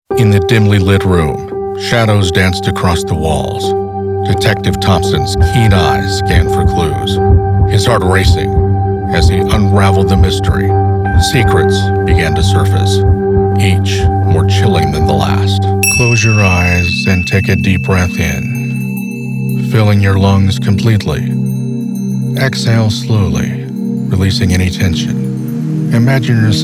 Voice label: Narration